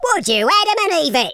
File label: "flawless", London Cabbie; speech